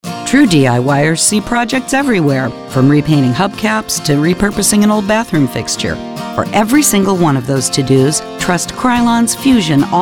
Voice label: Commercial